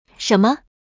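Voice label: "shenme", Mandarin Chinese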